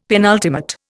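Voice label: "penultimate", Indian English; Dictionary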